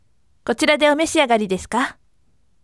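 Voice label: voice, firm; kotirade omeshiagari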